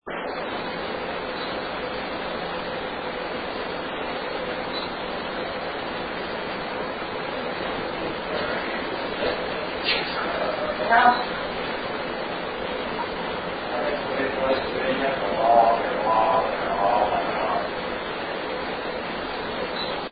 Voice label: male whisper and female voice; Henderson Home; EVP

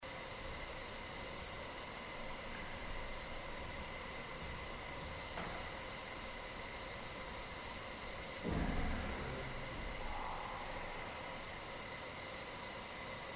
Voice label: Third Floor Two Unexplained whispers; Case 024 Pocahontas Fuel Building II

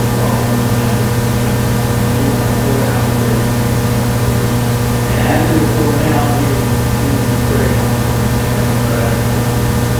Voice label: Unexplained Whisper (Yes) 1st Floor by elevator

